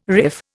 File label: "riff", Indian